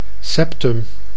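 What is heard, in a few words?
"septum", Dutch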